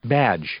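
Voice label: "badge", English; noun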